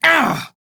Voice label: Pain, Male; Warframe; Railjack; Red Veil

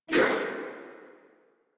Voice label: Pain Sniper, en

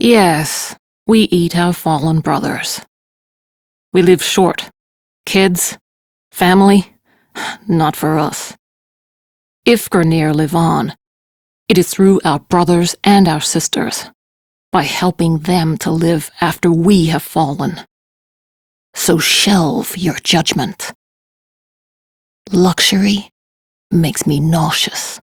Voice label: Crew Idle, Steel Meridian Female; Dialog; en; Warframe; RailjackCrew

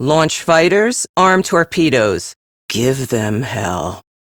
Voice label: Captain Female, combat start, en; Warframe; Railjack; Crp Captain

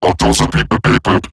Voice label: Player Spotted, Robot Large; Warframe; Eximus Corpus Robotic Large; Battle Barks

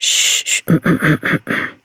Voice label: Horse Calm Adult; Duviri

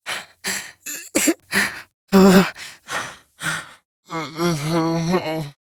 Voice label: Ostron Mumbling; Narmer Outpost; Chamber Archon Intro

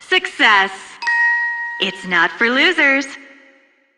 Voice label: PA System, en; Dialog; Warframe; Solaris Venus

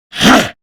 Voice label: Threatened, Male; Warframe; Grineer Male; Battle Barks

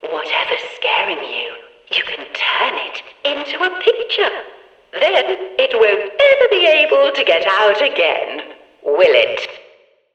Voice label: Background Announcements; Warframe; Shadowgrapher; Follies Hunt

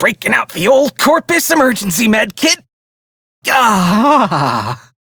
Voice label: Crew Heal Self, Male; Dialog; Warframe; Railjack Crew; Perrin Sequence

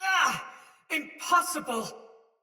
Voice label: Damage Soldier Mace Agent Female; Battle; Sinecure Melee

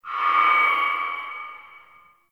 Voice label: Announcement End Verb; Lustratus; Interrogation

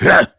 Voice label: Angry; Warframe; Tyl Regor Boss; Efforts

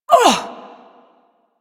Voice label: Ability Throw, Barks; Warframe; Corpus Lich; Persona B

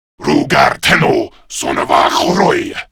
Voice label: Player Spotted, Male; Warframe; Grineer Male; Battle Barks

